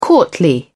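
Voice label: "courtly", British English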